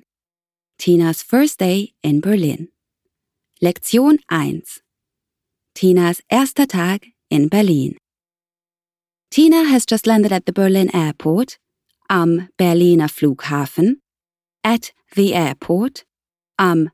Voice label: E-learning German